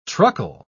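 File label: "truckle", British English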